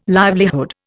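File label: "livelihood", Indian English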